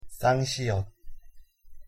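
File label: "ㅆ", Korean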